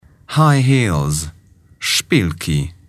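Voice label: "high heels", English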